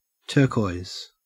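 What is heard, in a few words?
"turquoise", Australian English; pronunciations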